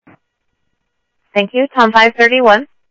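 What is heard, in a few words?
Sheriff Lake Patrol, scanner audio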